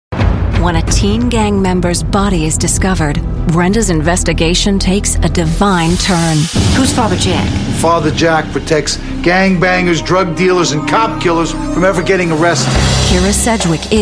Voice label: PROMO, female voices